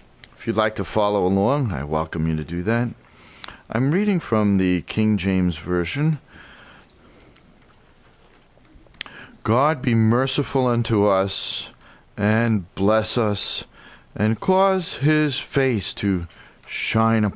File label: Message- Praise (Psalm 67, Psalm 8)